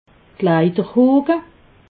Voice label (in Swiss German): "kleiderhoke", alsace